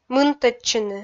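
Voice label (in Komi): "мынӧдчыны", Komi, female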